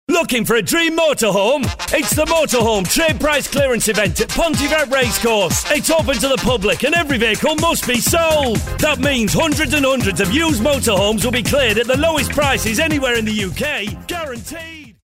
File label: mad northern man